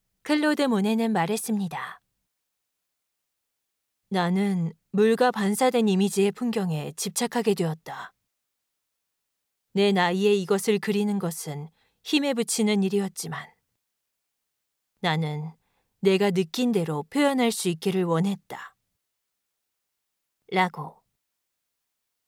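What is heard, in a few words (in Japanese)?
落ち着いた, ナレーション, 韓国語; 韓国ソウル出身。日本の専門学校、声優養成所を卒業。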